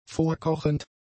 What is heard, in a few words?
"vorkochend", German; adjectives